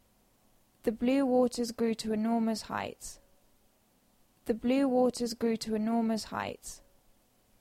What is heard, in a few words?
Dictation; phonology